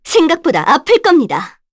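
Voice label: Vox Skill, Korean; Veronica; kings raid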